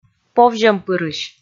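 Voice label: "повзьӧмпырысь", Komi, female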